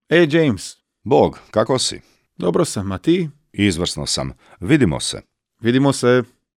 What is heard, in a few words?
dialogue, Croatian